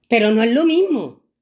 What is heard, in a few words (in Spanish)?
profesora; mucho teatro; presentador asterisco